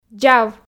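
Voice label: "дзав", Komi, female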